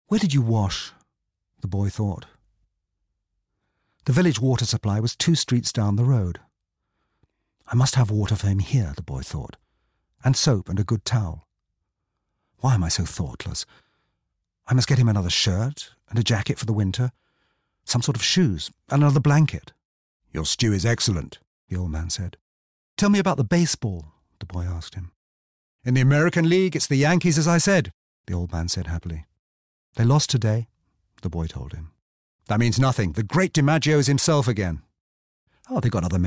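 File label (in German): British englisch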